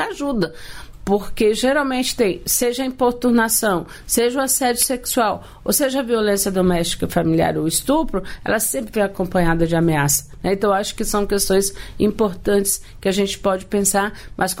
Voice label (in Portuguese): dialogo homens; bom dia ministro